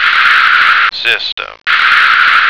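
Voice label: "system", American English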